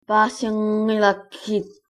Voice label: no understand, Greenlandic